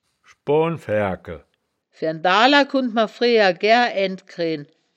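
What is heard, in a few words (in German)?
"schboan faergkel", wittlicher woerterbuch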